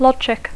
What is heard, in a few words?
"logic", English; Dacco